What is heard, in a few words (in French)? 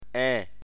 "ain", mina; Voyelles